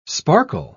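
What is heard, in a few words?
"sparkle", English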